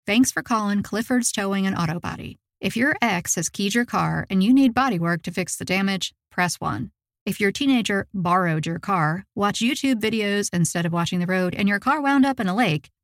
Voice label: ivr

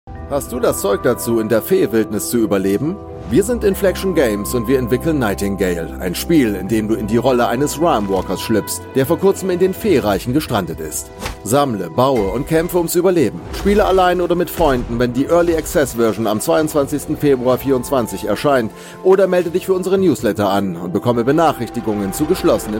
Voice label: intro video german tiktok

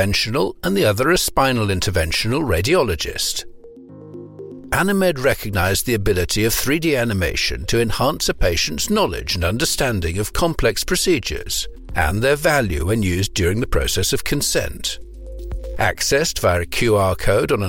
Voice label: medical narration

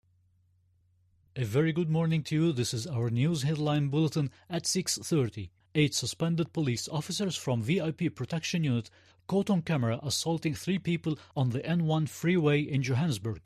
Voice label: english

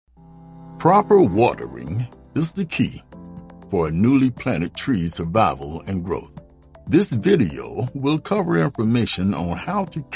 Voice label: explainer multi reads

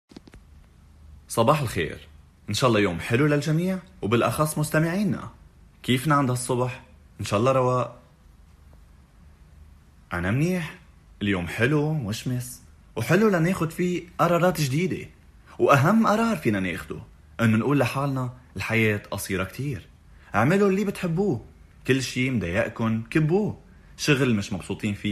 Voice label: lebanese

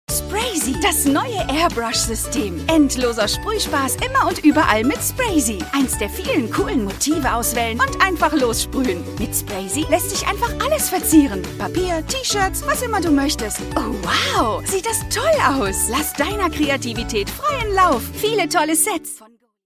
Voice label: tv spot

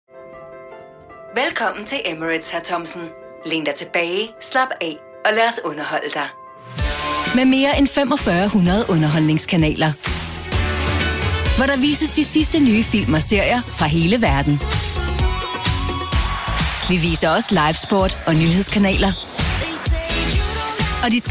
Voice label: on airplain commercial; emirates dubai

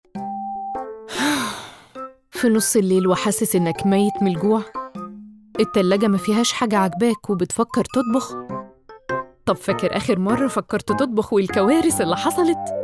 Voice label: egyptian

